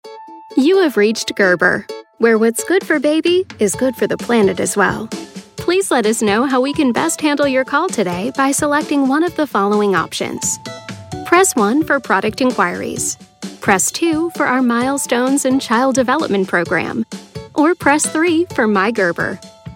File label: american voice actor telephone